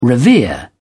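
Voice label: "revere", British English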